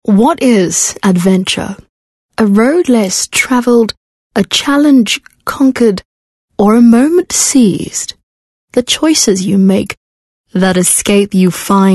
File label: English Softsell